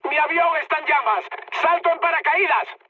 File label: on fire, mood high, speech; War Thunder